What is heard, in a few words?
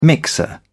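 "mixer", British English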